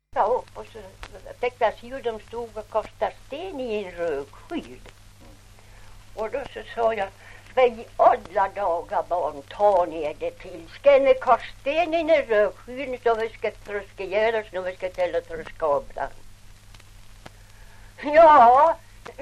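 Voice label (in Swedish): Ovansjö Gästrikland, dialekter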